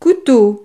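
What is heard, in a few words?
"couteau", French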